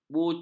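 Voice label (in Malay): "bucu", Malay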